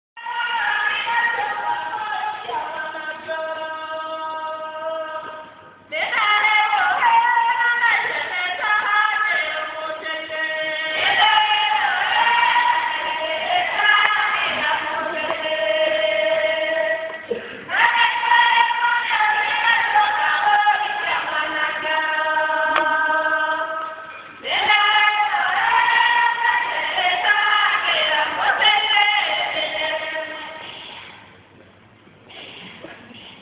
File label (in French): voix du Kenya